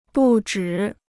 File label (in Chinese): "不止", Chinese